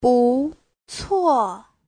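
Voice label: "búcuò", Mandarin Chinese; Giáo trình Hán ngữ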